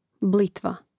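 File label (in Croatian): "blitva", Hrvatski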